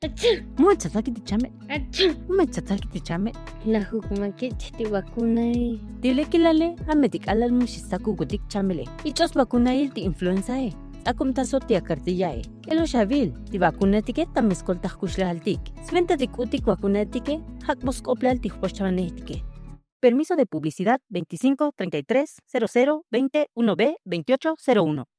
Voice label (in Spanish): Spot Radio Tzotzil; mexico; salud inclusiva